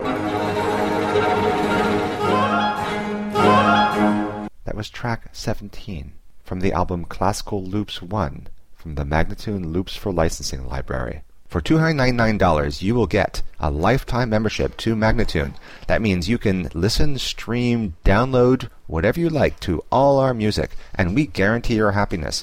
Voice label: american baroque spoken; Loops For Licensing; Classical Loops 1; MagnaLoops